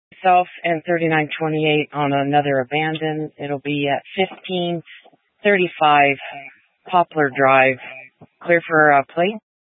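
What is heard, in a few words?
Police Dispatch, Scanner audio; Police 1 Dispatch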